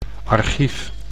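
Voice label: "archief", Dutch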